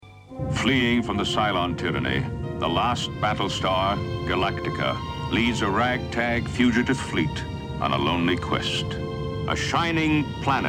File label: Closing Narration; TOS